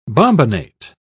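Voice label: "bombinate", British English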